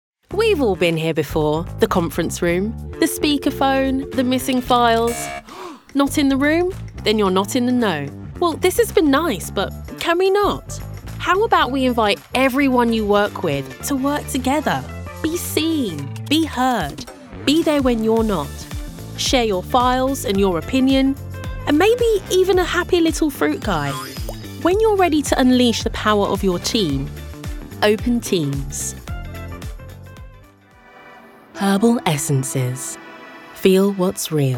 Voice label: Commercial

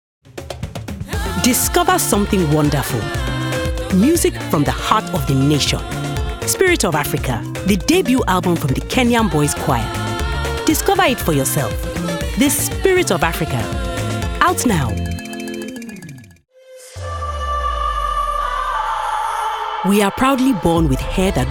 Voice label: NIGERIAN COMMERCIAL

